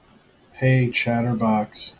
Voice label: hey chatterbox, en us